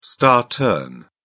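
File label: "star turn", British English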